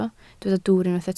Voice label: "dwwr", Welsh

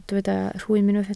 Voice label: "rhwym", Welsh

